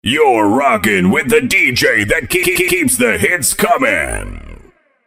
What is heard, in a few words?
vocal rockin dry; djdrops247